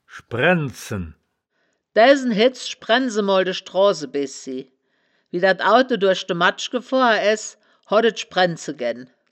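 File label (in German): "schbraend zen", wittlicher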